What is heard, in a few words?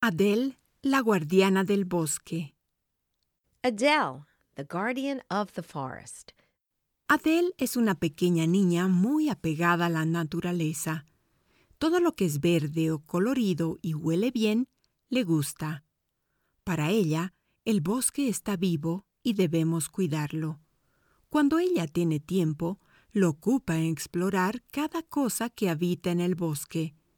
Bed Time Stories Spanish